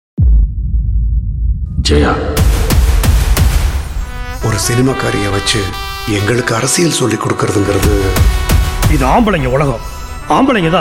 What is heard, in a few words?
Dialogue Ringtone, Tamil; Thalaivi Trailer; Jaya Intro